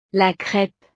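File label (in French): "la crepe", French; desayuno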